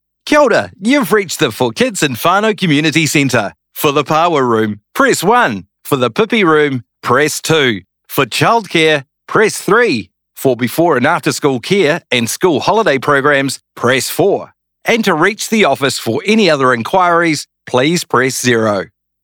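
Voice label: New Zealand Phone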